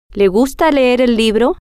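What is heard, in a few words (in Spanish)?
le Gusta Leer Libro, spanish, female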